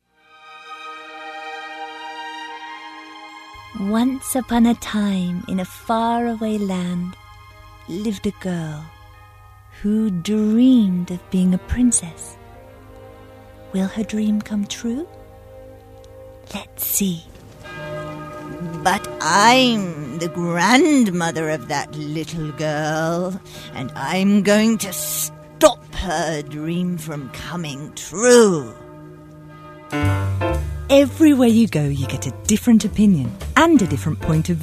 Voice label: Character, UK F; narrator